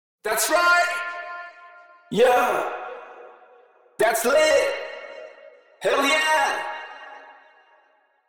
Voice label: My Voice Example After 3rd Party, adlibs